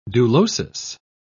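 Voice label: "dulosis", British English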